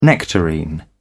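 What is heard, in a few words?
"nectarine", British English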